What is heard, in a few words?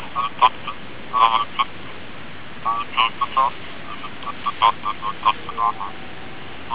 Static Voice Inversion